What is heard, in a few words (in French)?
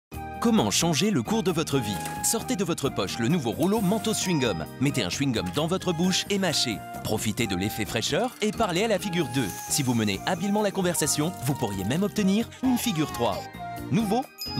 spot tv chewing gum, demos voix; mentos